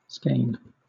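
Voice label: "skein" English, British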